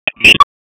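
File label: Police Southwest District Tac, radio; Raleigh; wake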